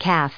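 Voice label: "calves", US English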